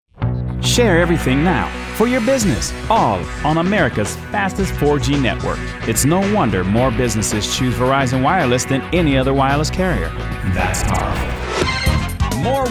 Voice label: Commercials